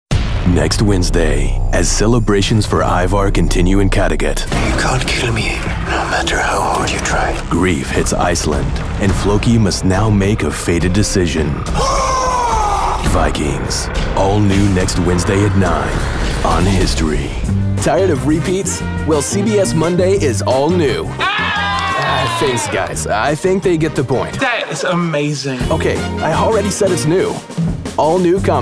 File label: Promo